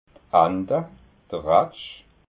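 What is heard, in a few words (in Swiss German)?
"anta", Alsatian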